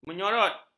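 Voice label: "menyorot", Malay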